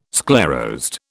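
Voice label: "sclerosed", British English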